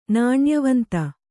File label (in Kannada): "ನಾಣ್ಯವಂತ", Kannada